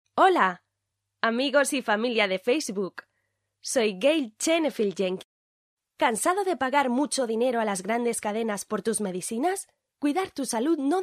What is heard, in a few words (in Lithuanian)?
(MOT) Ispanu balsas